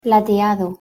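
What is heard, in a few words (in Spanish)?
"plateado" Spanish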